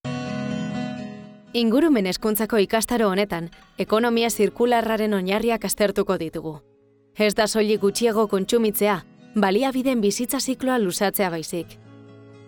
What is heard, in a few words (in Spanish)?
E Learning Euskera